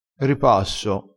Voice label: "ripasso", crespinese

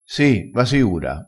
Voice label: "a fa", Crespinese

